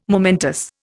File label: "momentous", Indian